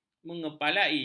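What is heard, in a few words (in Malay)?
"mengepalai", Malay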